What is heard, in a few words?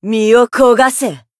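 Voice Japanese; finish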